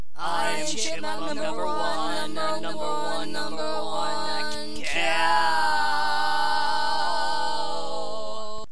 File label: chipmunk trio experiment